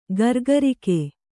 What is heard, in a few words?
"ಗುರಿಗಾರಿಕೆ", Kannada